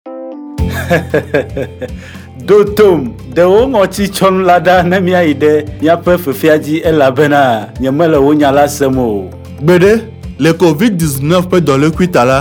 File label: Spot, Ewe